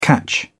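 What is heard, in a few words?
"catch on", British English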